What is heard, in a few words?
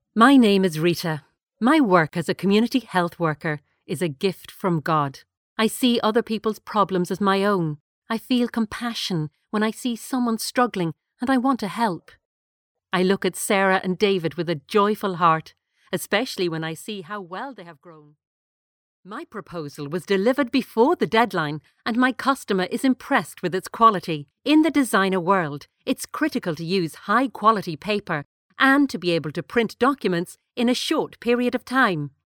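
English Irish, female, voice over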